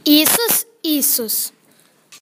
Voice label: "Jesus", Croatian; Isus